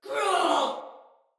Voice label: Damage Low Chance, Female; Battle; Barks; Dialog; Sinecure Soldier Female B